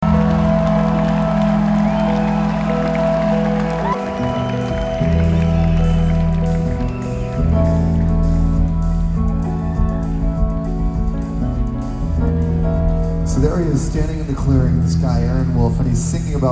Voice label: narration